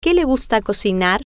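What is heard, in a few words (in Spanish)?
Que Le Gusta Cocinar, spanish, female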